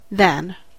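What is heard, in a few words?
"than" stressed, American English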